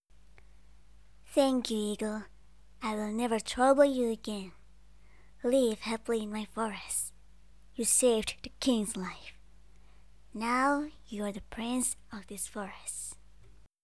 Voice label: Karakter English, cheerful and energetic voice character